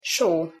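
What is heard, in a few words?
"so", Hungarian pronunciation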